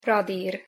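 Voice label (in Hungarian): "radir" Hungarian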